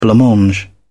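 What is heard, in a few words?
"blancmange", British English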